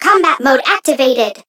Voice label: Voice chant